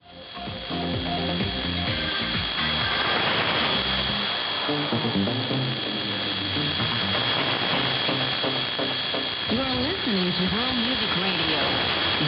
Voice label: World Music Radio 15700 kHz; Perseus; Schwarzsee

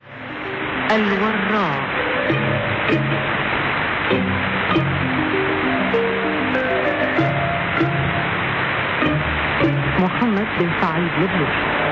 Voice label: 15140 kHz arabic; Radio Sultanate of Oman; NRD 535 DG; Fribourg

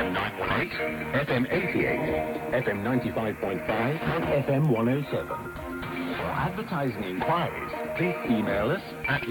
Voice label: Radio Thailand 9390 kHz english; Yaesu FT 2000; Schwarzsee

